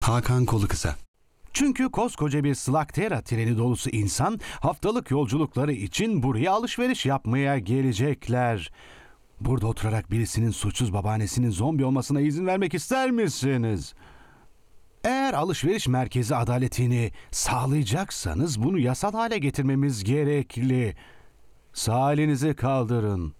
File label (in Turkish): Animasyon